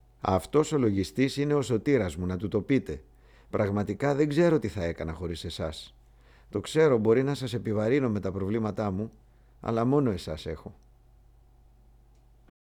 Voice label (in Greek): Ηλικιωμένος 4ης Ηλικίας